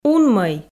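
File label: "унмӧй", Komi, female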